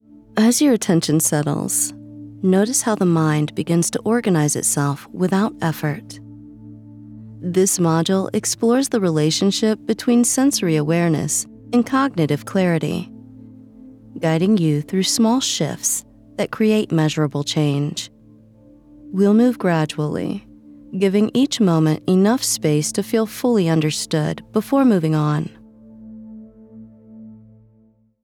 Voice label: Meditation; Meditation Module Sample